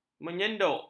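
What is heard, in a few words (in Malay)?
"menyenduk", Malay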